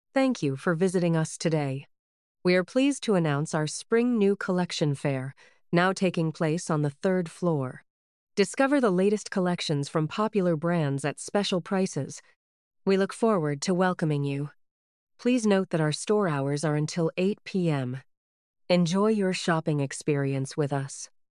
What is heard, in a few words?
Calm Woman Smooth Warm Polished